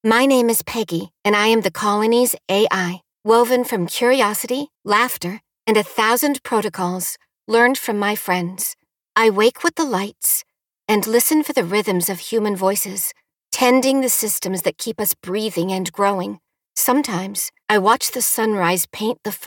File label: ai robot ya; audiobook narration